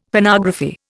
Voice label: "Phonography", Indian; Dictionary

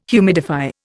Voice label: "humidify", Indian

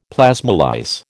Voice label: "plasmolyse", American

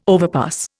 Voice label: "overpass", Indian

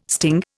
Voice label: "sting", Indian

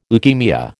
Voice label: "leukaemia", American

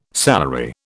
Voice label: "salary", British

